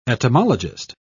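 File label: "etymologist", British English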